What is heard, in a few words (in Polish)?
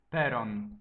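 "peron", Polish; multimedia glossaries; transport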